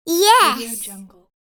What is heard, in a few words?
child answering happy "yes"